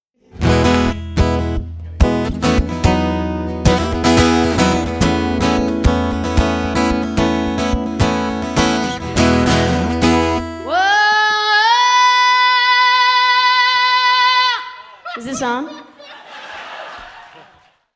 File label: Irish Sound Check